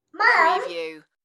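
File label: Toddler Says Mom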